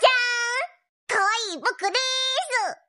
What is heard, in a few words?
kawaii boku desu cute; puniru